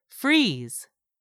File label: "freeze", English; 3000 words